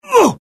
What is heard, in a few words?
death, UK; mohaa; dialogue